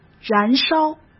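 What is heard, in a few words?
"燃烧", Mandarin Chinese; chinese flashcards; hsk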